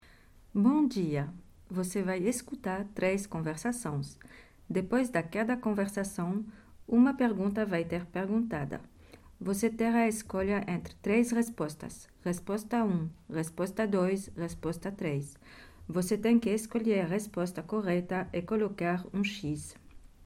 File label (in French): consigne portugais; Positionnement-FLE-Bruxelles